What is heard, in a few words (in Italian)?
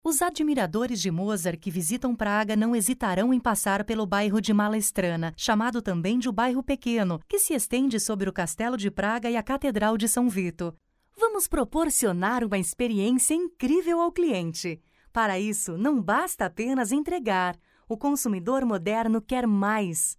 Documentario Brasil